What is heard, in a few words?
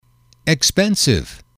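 "expensive", English, male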